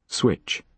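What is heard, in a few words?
"switch", British English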